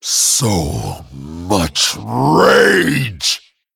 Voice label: Male Dragon TRIGGER BATTLE RAGE

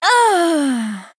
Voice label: Female Night Elf Death

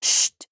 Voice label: Female Draenei Trigger Silence

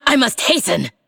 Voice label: Female Forsaken Time

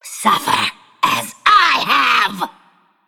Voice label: Female Undead Attack

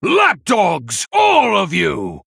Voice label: Male Human Attack

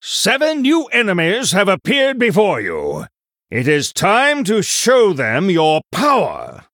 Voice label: Male Human New Game

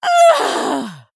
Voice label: Female Blood Elf Death

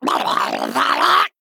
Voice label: Male Murloc Attack

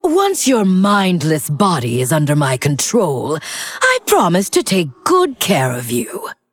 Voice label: Female Undead

